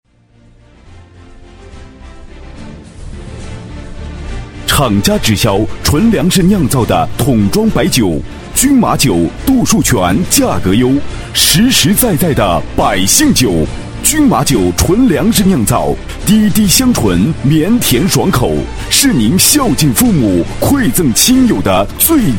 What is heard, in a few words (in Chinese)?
男 促销广告, 国语男声配音 厚重大气; 军马酒